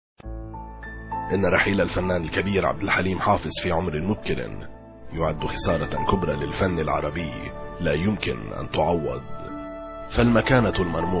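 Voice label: arabic male voice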